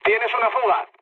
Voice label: tank damaged, mood low, speech; War Thunder

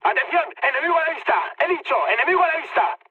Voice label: enemy detected, mood high, speech; War Thunder

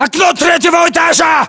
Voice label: location third floor window, english; localized english

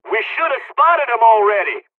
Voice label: dialog, dialogs serbian; us midway; War Thunder

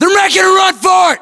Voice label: threat infantry exposed, US; localized english; battlechatter

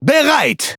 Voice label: Speech Pilots Fighter Ready, DE; World Of Warships

